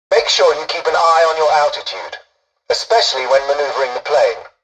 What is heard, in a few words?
tutorial dialogue, english; War Thunder